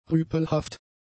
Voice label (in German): "rüpelhaft", German; adjectives